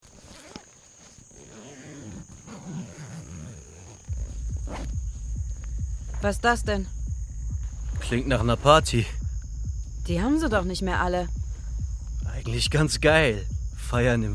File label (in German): Hörspiel; Nur Gummistiefel Sind Erlaubt; Nico; Eva